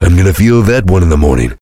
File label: heavy jeers; tf; duke